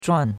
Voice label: "zhuan4", chinois; Cours-de-Chinois

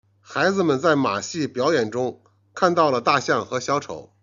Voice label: "jian", Chinese

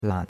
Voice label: "lan3", chinois; Cours de Chinois

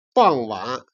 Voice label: "jian", Chinese

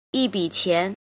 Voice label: "jin", Chinese

